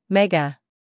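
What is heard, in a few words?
"scandal", American; mega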